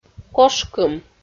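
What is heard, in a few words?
"кошкым", Komi, female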